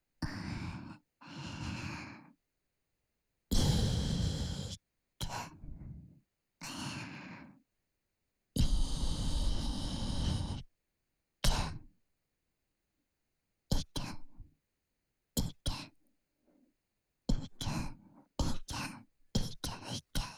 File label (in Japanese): 囁き（イケ・左）言语侵犯